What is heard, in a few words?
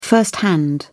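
"firsthand", British English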